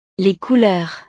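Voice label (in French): "couleurs", French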